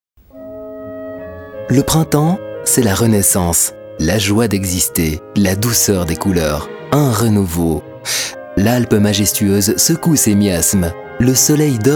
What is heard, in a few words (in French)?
pub voix off